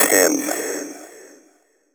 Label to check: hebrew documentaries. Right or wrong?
Wrong